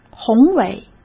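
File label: "宏伟", Mandarin Chinese; chinese flashcards; hsk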